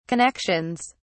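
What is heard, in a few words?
"connections", British English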